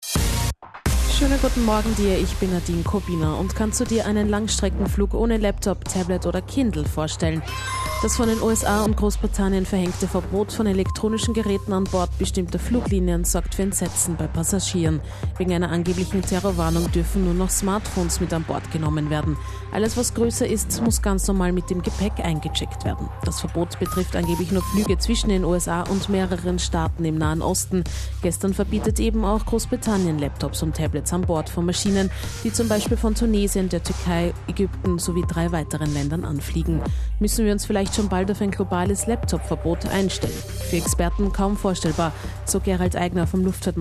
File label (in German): Interview auf Kronehit Radio; Austrian Wings; Laptopverbot an Bord